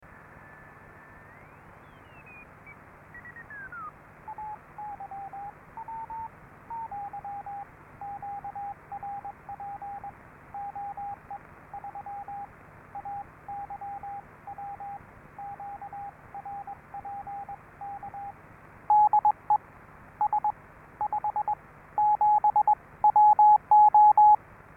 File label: qso; DC RX Polyakov